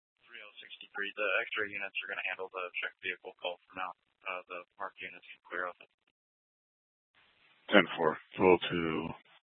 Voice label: PD Dispatch

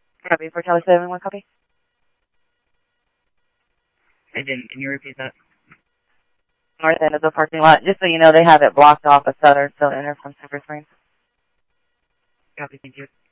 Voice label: Mesa Simulcast, MESA PD, scanner audio

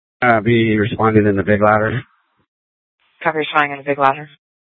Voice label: Fire, scanner; Regional Wireless Cooperative (RWC) - Simulcast H- Scottsdale; Phoenix; FDCOM 8 - South

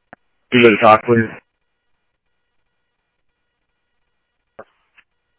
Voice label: Police, scanner audio trunk; H-Scottsdale

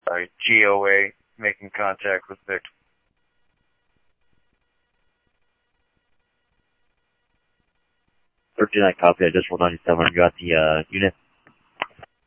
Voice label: PD Police, scanner audio; SCTSDLE PD - PD2 ScottsdalePolice; ThompsonPeakHigh

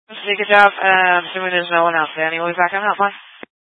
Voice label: Police Dispatch

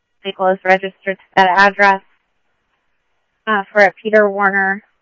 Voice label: Tempe Police, scanner audio; SimulcastF-Tempe

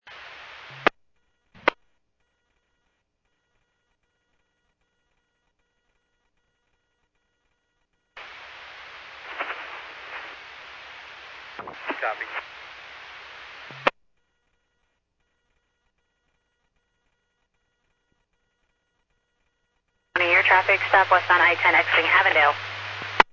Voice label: Medevac, scanner; NativeAirAmb

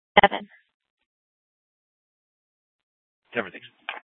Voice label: Dispatch; Scottsdale

